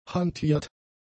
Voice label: "hantiert", German; adjectives